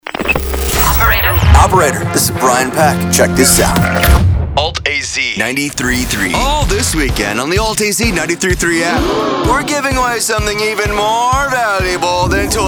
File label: radio imaging men alt; Alt Rock